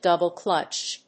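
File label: "double-clutch", English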